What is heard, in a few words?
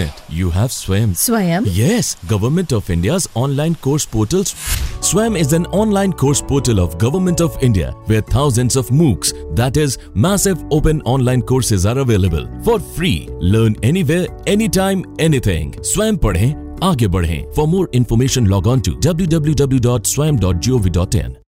Spot English; Swayam